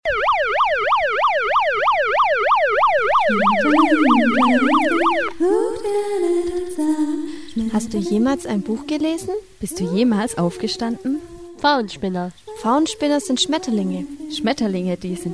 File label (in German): Jingel ohne Musik; Pfauenspinner